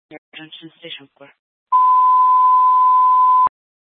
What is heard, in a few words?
Fire Department Dispatch, scanner; Grand Junction